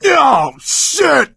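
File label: boomer reaction, voice, male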